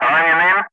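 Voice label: "running in", English; Ka-50; Wingman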